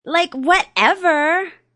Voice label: Young Lady Like Whatever, A young lady says; Talking